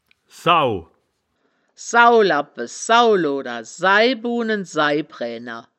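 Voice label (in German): "sau", wittlicher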